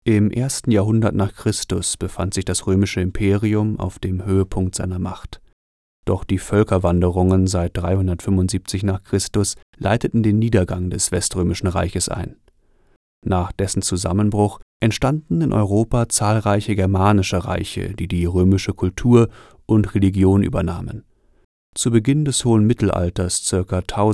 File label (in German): Dokumentation sauschnitt; Stimmen Weiblich